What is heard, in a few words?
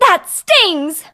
hurt